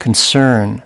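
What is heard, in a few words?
"concern", spoken word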